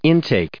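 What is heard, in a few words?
"intake", US English pronunciation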